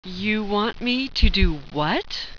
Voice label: "you want me to do what", sultry; tactics